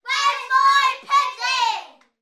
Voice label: human group children x6 uk aged 3 to 8 shout where is my pudding